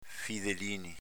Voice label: "fidelinin", dialetto veneto